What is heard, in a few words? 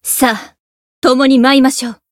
limitbreak, jp; niike